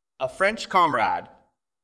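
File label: VoiceActing es M2, voice acting, Spanish, male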